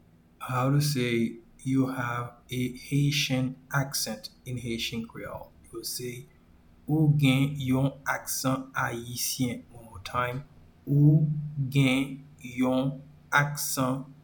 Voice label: "Ou gen yon aksan ayisyen", Haitian Creole pronunciation; “You have a Haitian accent” is translated as “Ou gen yon aksan ayisyen” in Haitian Creole